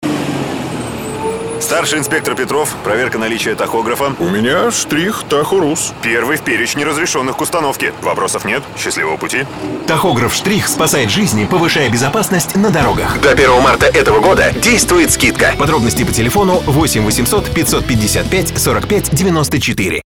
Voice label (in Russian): Рекламный ролик на авторадио